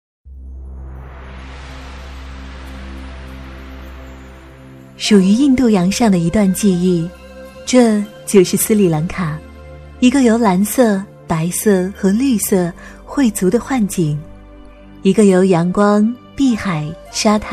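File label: chinese mandarin female narration; voice demos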